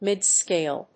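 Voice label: "midscale", English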